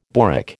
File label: "boric", American